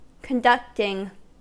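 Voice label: "conducting", American English; pronunciations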